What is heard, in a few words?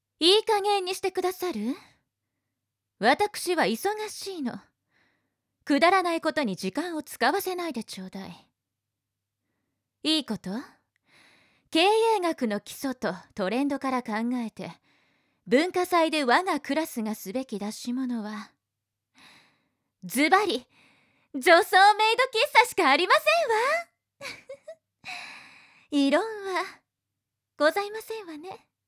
girl